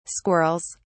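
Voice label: "squirrels", British English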